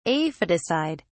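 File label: "aphidicide", British English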